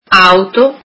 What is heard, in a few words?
italian car